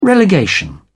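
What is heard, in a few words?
"relegation", British English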